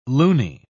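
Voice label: "looney", British English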